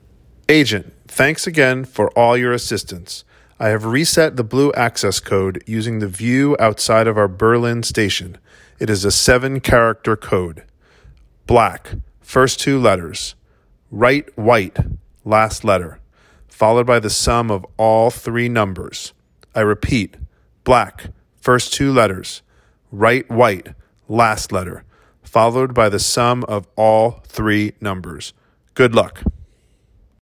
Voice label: phone message